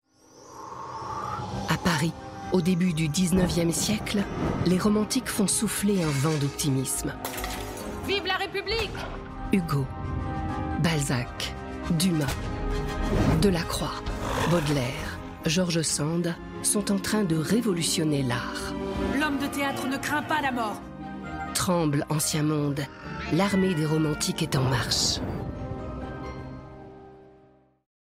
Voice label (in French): BA, voix-off; Paris Les Romantiques